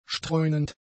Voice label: "streunend", German; adjectives

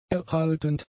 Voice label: "erhaltend", German; adjectives

